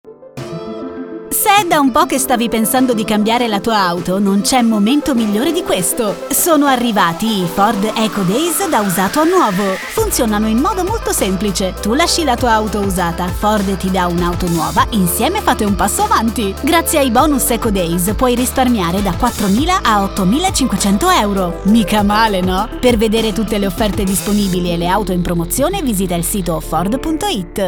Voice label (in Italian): demo voce spot